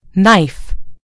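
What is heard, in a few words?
"knife", British English; gcompris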